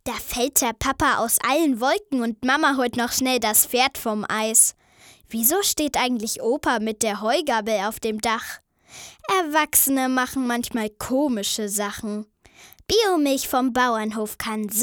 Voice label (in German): maedchen; Biomilch